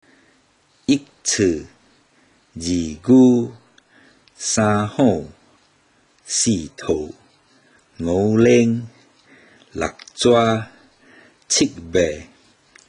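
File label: Chinese Zodiac Read, Teochew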